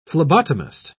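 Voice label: "phlebotomist", British English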